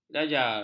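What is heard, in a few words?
"jajar", Malay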